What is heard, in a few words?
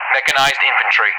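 "mechanized infantry", English; DCS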